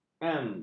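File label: "elm", Malay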